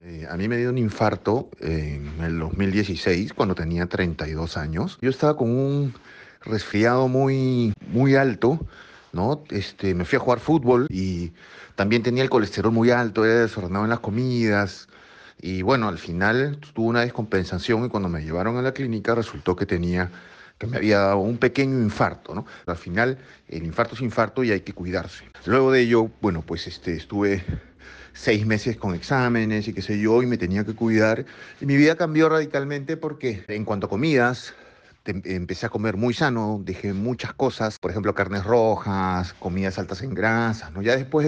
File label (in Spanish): Testimonio; Aspirina; escucha tu corazon